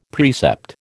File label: "precept", American